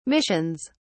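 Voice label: "missions", British English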